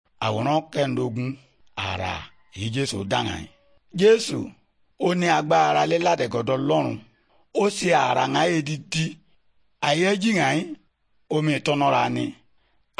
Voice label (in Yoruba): good news yoruba, bible